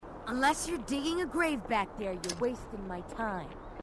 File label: unless youre digging a grave, marine tough